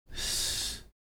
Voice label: "s", Heiltsuk

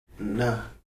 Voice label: "ṇ̓", Heiltsuk

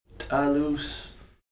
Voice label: "slices of dried fish", Heiltsuk

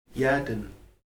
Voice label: rattle, Heiltsuk

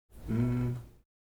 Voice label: "m", Heiltsuk

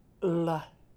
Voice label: "ḷ̓", Heiltsuk

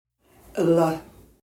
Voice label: long glottal L

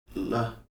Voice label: "ḷ̓" long ejective L, Heiltsuk

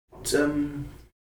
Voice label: "index finger", Heiltsuk

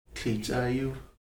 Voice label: racket, Heiltsuk

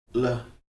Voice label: "l̓" ejective L, Heiltsuk

